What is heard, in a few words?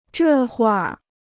"这会儿", Chinese